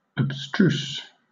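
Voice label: "abstruse", British English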